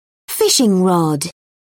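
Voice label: "fishing rod", British English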